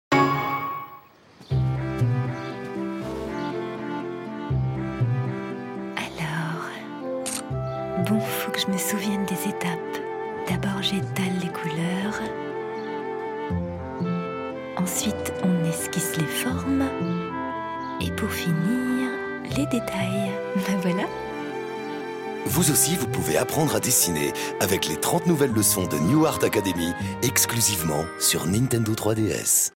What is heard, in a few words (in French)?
voix confidentielle; NEW ART ACADEMY